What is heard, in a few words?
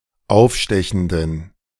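"aufstechenden", German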